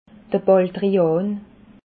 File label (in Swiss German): "baldrian", alsace